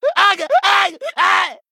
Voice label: Male Troll Death